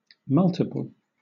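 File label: "multiple", British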